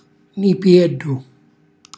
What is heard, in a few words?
"nipiellu", Calabrese